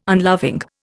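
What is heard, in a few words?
"unloving", Indian English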